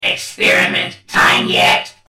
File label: scientist angrily as